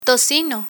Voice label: "tocino", Spanish

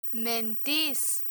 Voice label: "mentis", Spanish

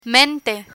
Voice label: "mente", Spanish; learn spanish

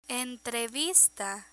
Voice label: "entrevista", Spanish

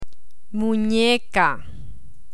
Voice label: "muneca" Spanish; learn spanish

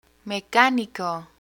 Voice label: "mecanico", Spanish; vocabulary professions; learn spanish

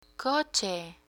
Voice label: "coche", Spanish; picture dictionary; learn spanish

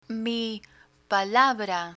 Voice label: "mi palabra" Spanish; learn spanish

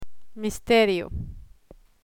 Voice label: "misterio", Spanish

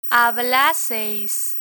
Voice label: "hablaseis", Spanish

